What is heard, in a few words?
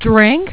"drink", Spanish